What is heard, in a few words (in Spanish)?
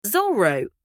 "Zorro", Spanish